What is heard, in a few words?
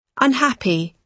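"unhappy", British English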